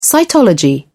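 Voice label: "cytology", British English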